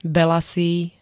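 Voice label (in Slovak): "belasý", Slovak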